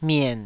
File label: "mien3", Taiwanese